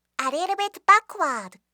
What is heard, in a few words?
"a little bit backward", voice